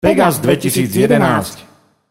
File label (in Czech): jingel jen mluveny; pegas